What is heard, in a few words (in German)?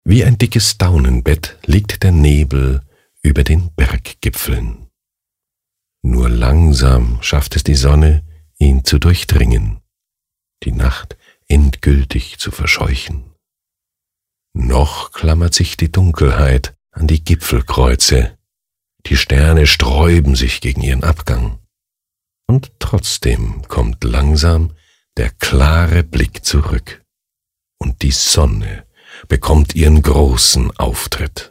Schauspiel Sanft